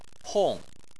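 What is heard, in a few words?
"hong", Chinese, fourth tone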